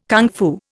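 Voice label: "kung fu", Indian English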